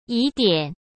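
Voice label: "疑点", Mandarin Chinese; chinese flashcards; hsk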